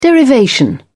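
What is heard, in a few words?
"derivation", English, British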